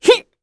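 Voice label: Vox Casting, korean; Glenwys; kingsraid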